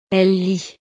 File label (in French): "elle lit", frances